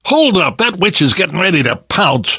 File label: witch getting angry, survivor voice